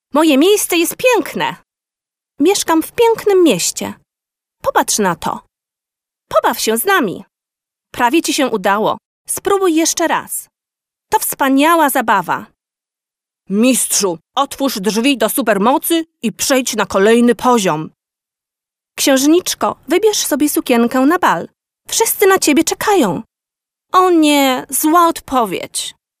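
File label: Polish female children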